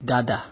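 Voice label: "gada", Nigerian Pidgin, male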